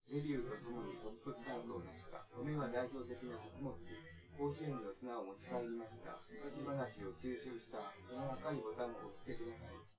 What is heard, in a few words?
male, synth conv, 250ms, 1m; SiSEC10; underdetermined